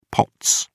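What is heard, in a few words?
"pots", British English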